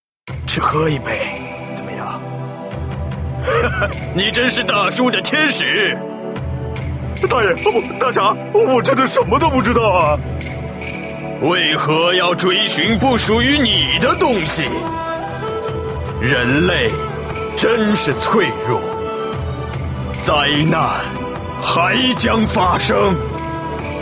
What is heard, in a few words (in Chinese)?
nan zhongnian